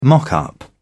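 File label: "mock-up", British English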